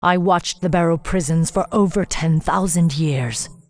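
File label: pissed, English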